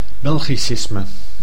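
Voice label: "belgicisme", Dutch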